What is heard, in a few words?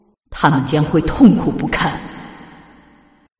morgana chinese